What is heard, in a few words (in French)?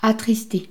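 "attriste", French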